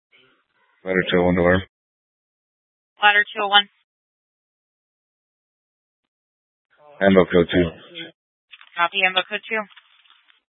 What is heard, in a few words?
Fire Departments Mesa Simulcast; TOPAZ